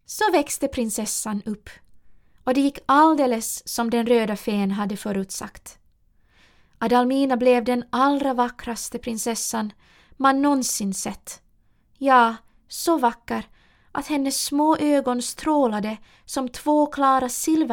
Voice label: Swedish

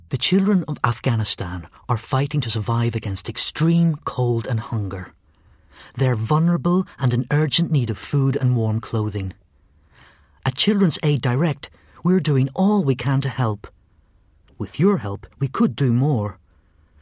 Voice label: Narration

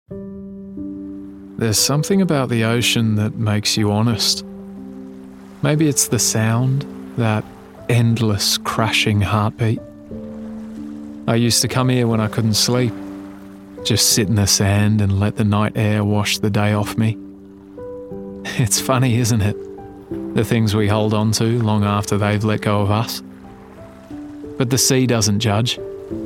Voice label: Narration; 20s-30s; Male; Australian

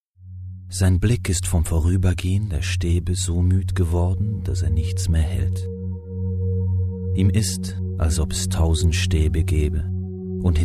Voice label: German

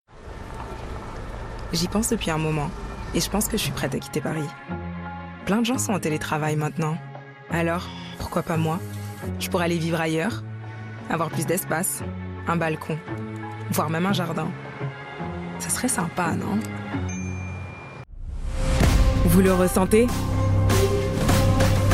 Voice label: French

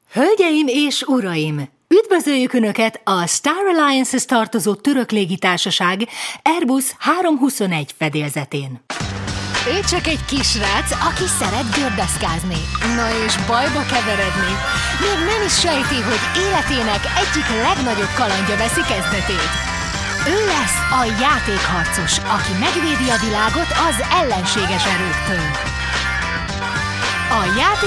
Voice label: Hungarian, Female, 30s-40s; Eastern European

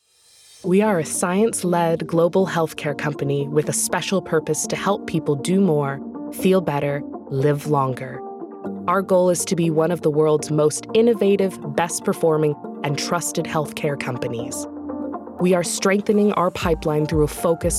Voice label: CORPORATE

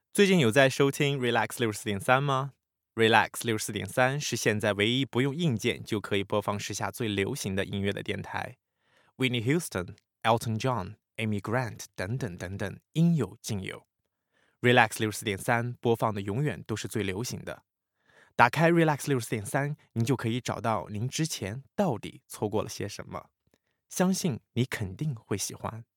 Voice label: English

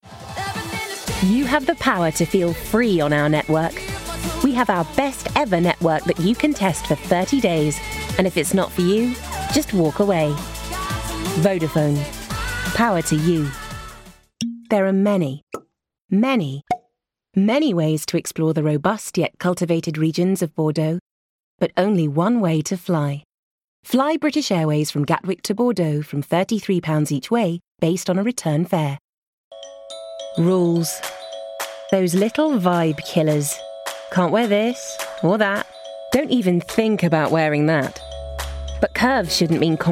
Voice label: Commercials

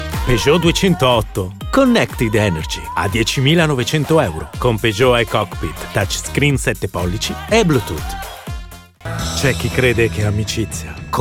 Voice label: Italian

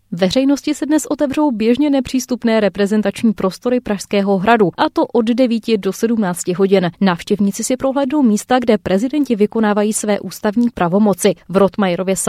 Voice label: Czech

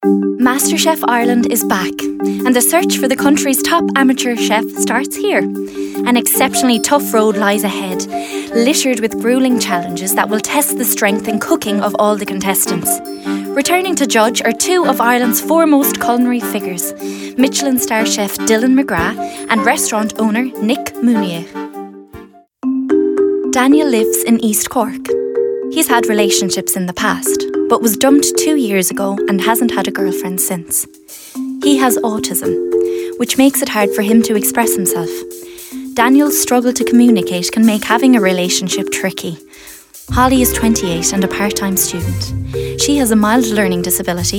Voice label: Narration

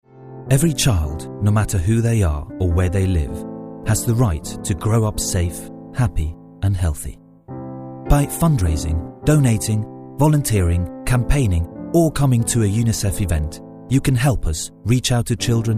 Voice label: German English

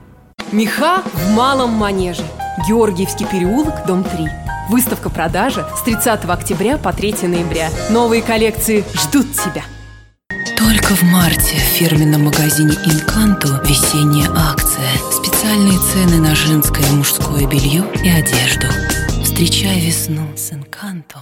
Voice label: Russian, Female, 20s-40s; Eastern European

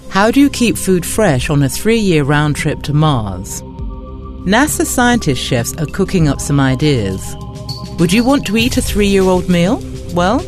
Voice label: Narrative

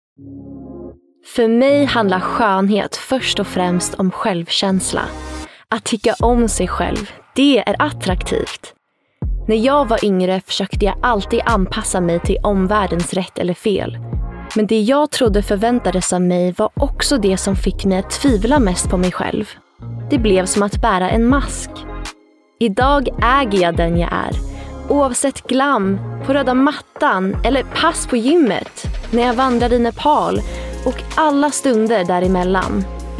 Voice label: Swedish, Scandinavian, Female, 20s-30s

